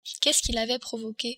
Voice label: "provoque", French